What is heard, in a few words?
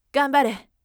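"ganbare", firm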